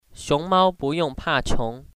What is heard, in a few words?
"iong", Chinese pronunciation